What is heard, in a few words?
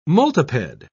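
"multiped", English, British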